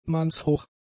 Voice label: "mannshoch", German; adjectives

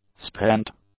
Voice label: "spent", english; adjectives

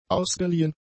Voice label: "ausgeliehen", German; adjectives

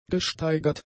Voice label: "gesteigert", German; adjectives

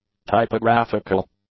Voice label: "typographical", English; adjectives

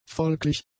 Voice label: "folglich", German; adjectives

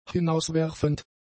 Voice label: "hinauswerfend", German; adjectives

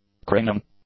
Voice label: "cringing", English; adjectives

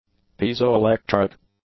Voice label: "piezoelectric", English; adjectives

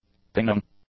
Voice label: "pinging", English; nouns

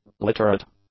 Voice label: "literate", english; adjectives

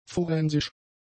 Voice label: "forensisch", German; adjectives

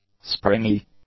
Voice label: "springy", english; adjectives

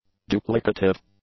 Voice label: "duplicative", English; adjectives

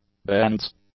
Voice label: "bends", English; nouns

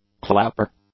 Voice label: "clapper", English; nouns

